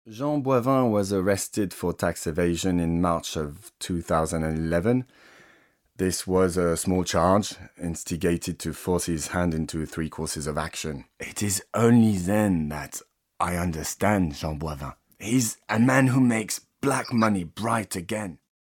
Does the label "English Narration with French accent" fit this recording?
Yes